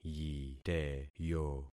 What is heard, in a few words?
"yiteyo", concat syllables; Affixing experiment